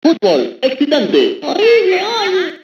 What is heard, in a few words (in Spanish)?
futbol excitante